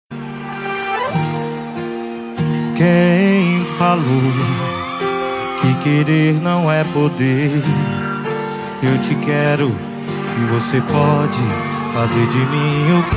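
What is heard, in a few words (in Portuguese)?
birthday ROMANTICO female, telemensagem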